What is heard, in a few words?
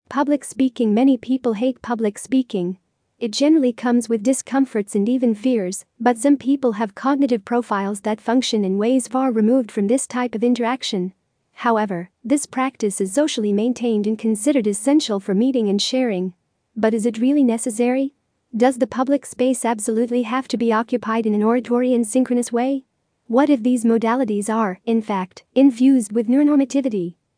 Public Speaking